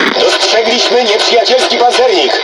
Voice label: Speech Detection Enemy Battleship, PL; World Of Warships